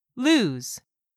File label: "lose", English; 3000 words